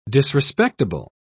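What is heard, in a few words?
"disrespectable", British English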